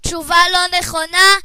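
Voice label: check answer, Chinese; gcompris-data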